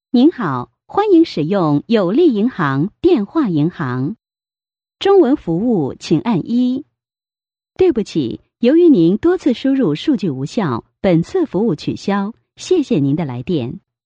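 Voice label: Chinese, female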